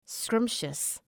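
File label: "scrumptious", English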